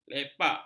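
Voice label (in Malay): "lepak", Malay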